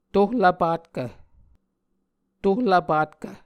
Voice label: "tohlvpatkv", muscogee